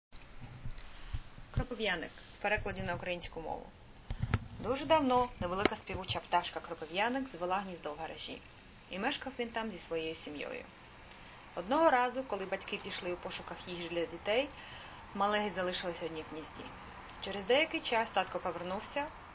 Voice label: ukrainian